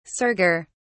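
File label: "serger", British English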